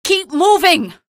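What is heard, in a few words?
citizen buddy killed, female; source engine; episodic; episode 1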